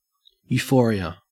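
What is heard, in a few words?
"euphoria", Australian English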